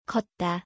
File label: korean walk